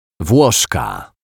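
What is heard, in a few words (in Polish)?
"Wloszka", Polish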